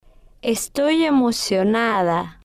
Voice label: "estoy Emocionada", spanish

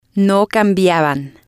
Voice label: "no cambiaban", spanish

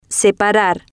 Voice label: "separar", Spanish

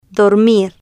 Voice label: "dormir", spanish

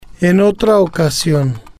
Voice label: "en Otra Ocasion", spanish

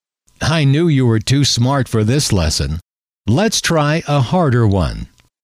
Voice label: I knew you were too smart for this lesson, M

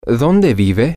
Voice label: where do you live, spanish, M

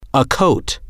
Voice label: "a coat", Spanish

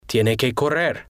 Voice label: tiene Que Correr, Spanish

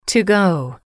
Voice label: "to go", English

